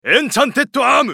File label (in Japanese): ウォーロック男 エンチャンテッドアーム